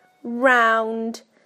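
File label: "round", British English; PictureDictionary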